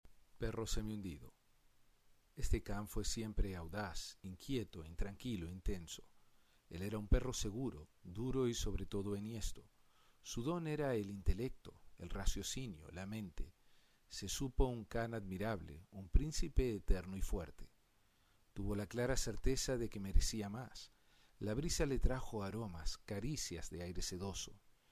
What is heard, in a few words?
Narration; pandemic stories